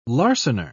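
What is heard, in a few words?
"larcener", British English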